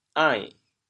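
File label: "ain3", Teochew, chaoyang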